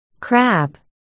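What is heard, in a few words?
"crab", spoken word, articulation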